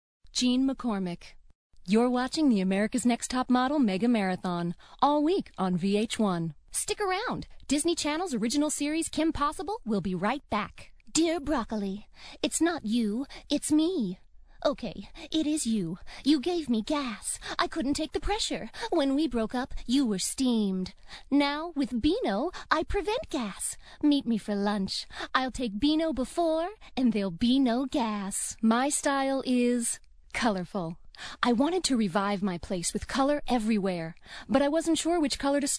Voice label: Voiceover